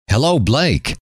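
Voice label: hello, male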